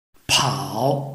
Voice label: "pao3", Chinese